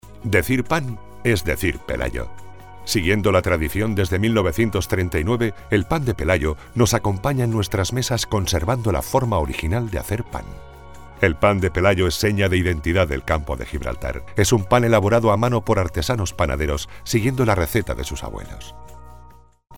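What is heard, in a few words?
spanish male commercial; voice demos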